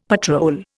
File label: "patrol", Indian; Dictionary